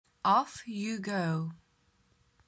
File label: off you go pronunciation slow; Best English Nitra